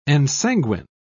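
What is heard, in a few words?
"ensanguine", British English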